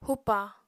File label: "hupa", Apatani